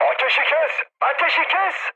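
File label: Turkish player friendly fire, mood high, speech; War Thunder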